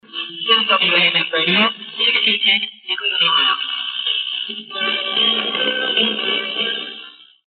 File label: Station ID; WAMF-AM Cleveland; Fall 1966